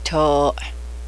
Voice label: "tomahawk", Cheyenne